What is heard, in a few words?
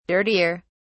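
"dirtier", British English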